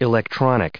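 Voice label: "electronic", English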